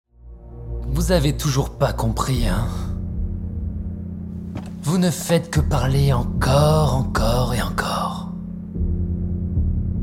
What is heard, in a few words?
dubbing, French, male